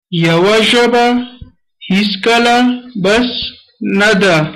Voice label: one language, pashto